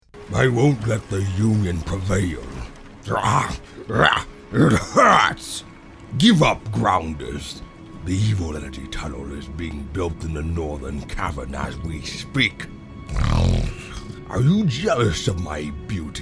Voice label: animation men, voices men